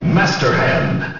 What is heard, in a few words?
Announcer; Master Hand; SSBM